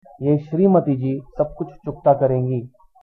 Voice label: pay, hindi

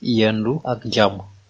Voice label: have a nice day, wolof

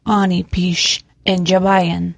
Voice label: "where from", ojibwe

